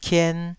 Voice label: "kien2", taiwanese syllables

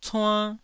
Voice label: "cuann1", Taiwanese syllable

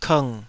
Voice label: "kng3", taiwanese syllables

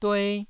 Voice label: "due1", taiwanese syllable

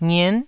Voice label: "ngien9", Taiwanese syllable

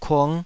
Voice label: "kung5", Taiwanese syllable

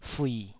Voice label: "fui3", Taiwanese

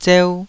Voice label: "zeu2", Taiwanese

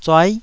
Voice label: "zuai9", taiwanese

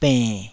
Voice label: "benn3", taiwanese

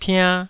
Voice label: "piann2", Taiwanese

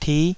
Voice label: "tih5", taiwanese Syllables

